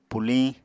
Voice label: "puliñ", Apatani

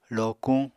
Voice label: "lokuñ", Apatani

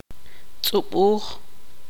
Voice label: tzutujil; chupar

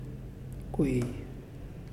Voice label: "Two", Muyuw; Lae Unitech

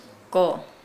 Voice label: "bark dog", Nukuoro

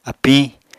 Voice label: "apiñ", Apatani

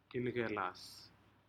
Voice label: crab species "incelas", Aneityum

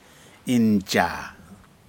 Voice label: birds Gallus gallus, Aneityum

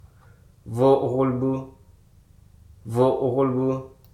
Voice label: "ham", Hruso Aka

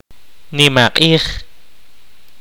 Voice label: kaqchikel; party

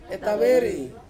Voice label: "taweri" to turn, Ansus

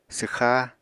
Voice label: "swxá", Apatani

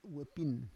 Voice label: "we pin", Roon

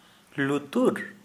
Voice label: Santali ear

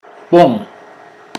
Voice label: "night", Mokilese

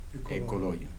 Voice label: "good evening", Kibembe

